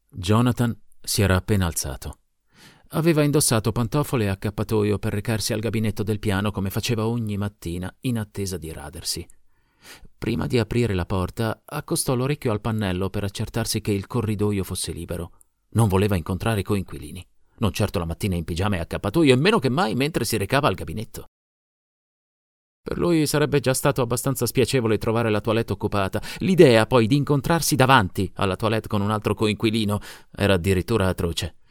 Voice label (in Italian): lettura brillante